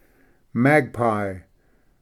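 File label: "magpie", English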